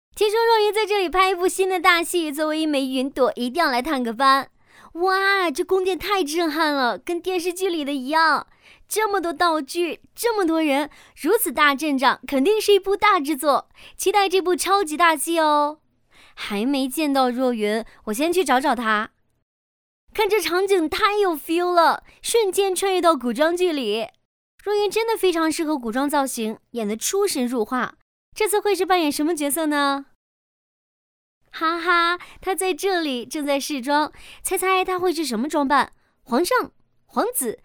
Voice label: 女 嗲嗲的 饭圈女孩儿

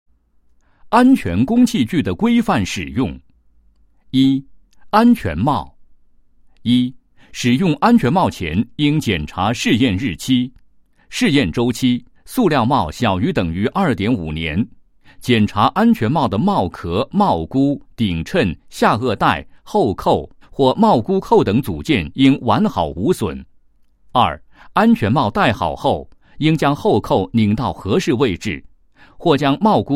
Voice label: 男-解说词; 安全工器具的规范使用

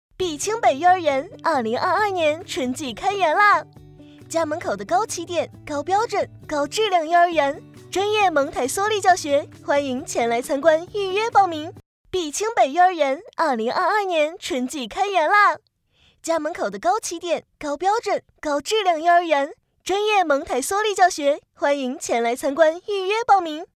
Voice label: 女 促销广告 幼儿园; 碧清北幼儿园

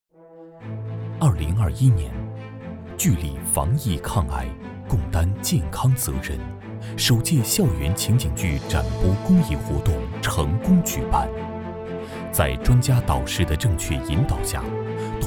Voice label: 男 大气宣传

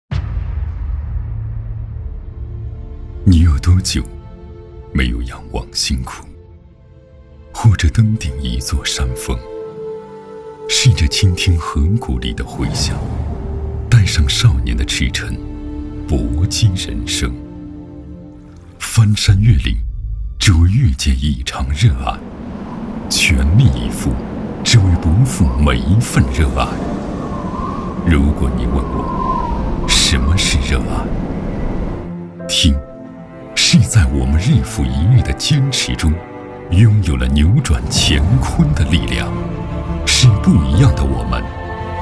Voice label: 男 广告 独白 片 深沉高端; 仰望星空

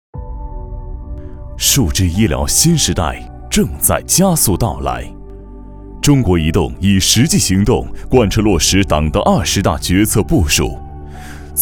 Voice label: 男 宣传片 科技感 大气

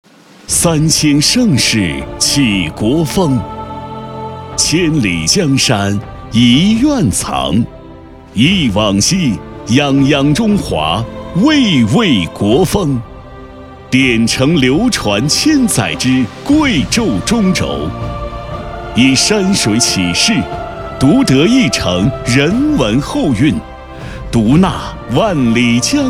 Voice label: 男 大气; 九如意

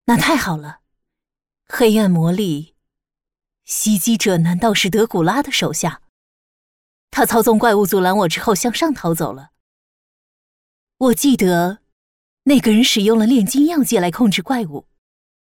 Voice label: 女 角色游戏 成年女

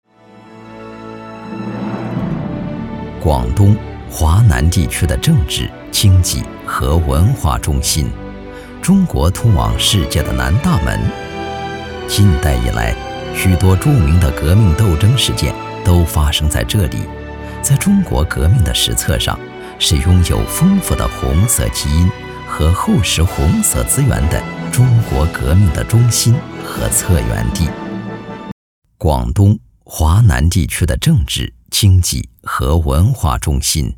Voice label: 男 红色纪录片

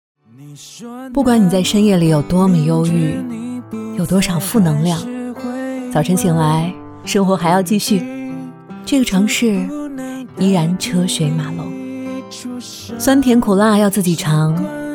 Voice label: 女 情感 抖音风格

